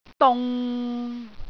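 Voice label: "dung6", Cantonese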